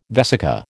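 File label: "vesica", American English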